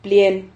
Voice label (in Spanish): "plien", Silabas